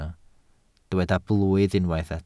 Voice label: "blwydd", welsh